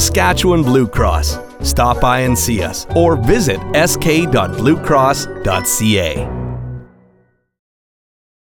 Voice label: Glasses radio; SBC; 30s radio